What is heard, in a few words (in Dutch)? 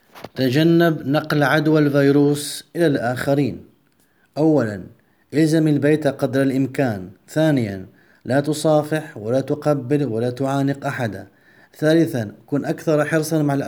vertraag de verspreiding van het virus arabisch